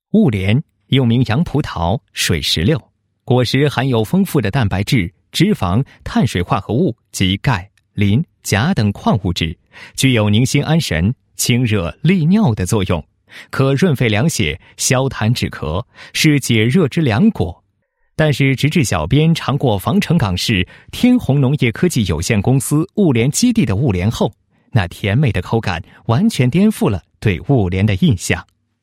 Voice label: 男-产品介绍; 红妈妈牌 莲雾果介绍

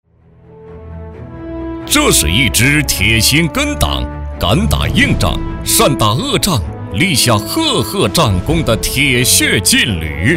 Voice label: 国语男声配音 激情 浑厚; 军队; 央视老师

